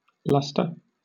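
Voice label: "lustre", British English; pronunciations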